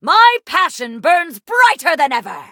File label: battle, en; Cookie Run